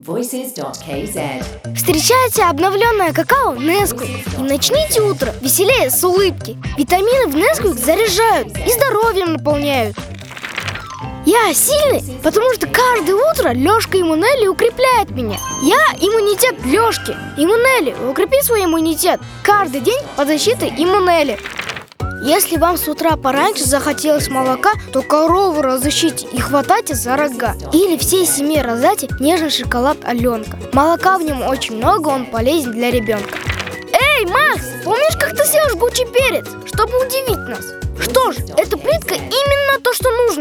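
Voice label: kid Russian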